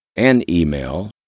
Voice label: "an email", english